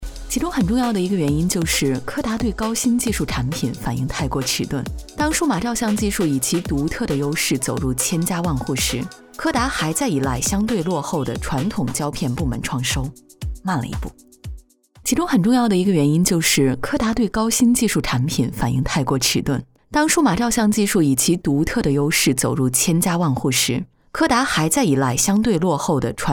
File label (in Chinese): 女 广告 商业感